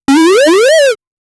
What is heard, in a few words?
8bit sound saying you win